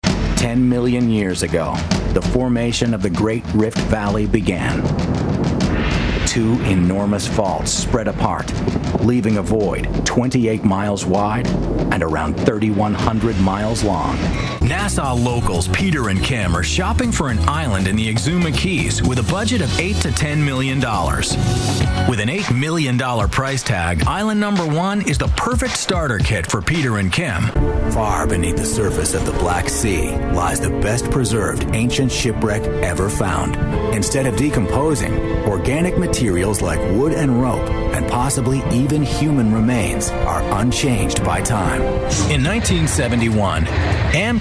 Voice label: narration